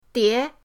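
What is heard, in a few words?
"die", Mandarin pinyin, second tone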